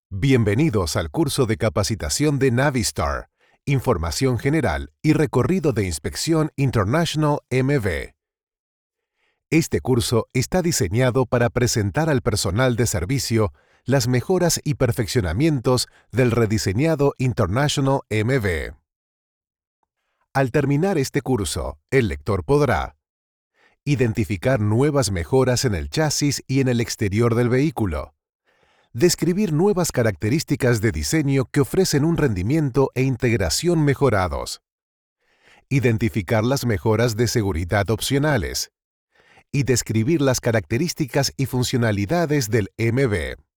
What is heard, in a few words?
E-Learning